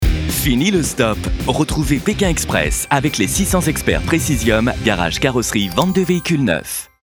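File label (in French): tonique efficace, voix off parrainage, homme; PRECISIUM tonique, efficace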